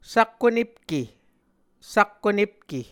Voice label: "sakkonepke", Muscogee; A Dictionary of Creek/Muskogee; SIL International